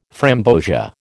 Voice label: "framboesia", American